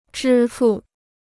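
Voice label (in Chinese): "支付" Chinese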